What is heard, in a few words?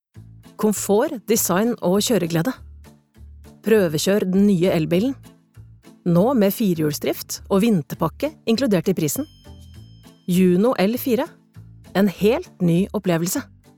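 Norwegian advert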